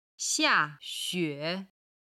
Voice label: "xia4 xue3", Chinese